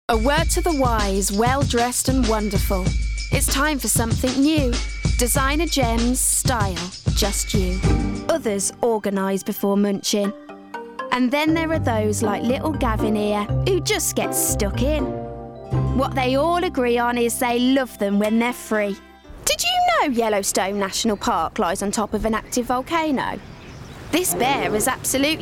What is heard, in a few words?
Commercial Reel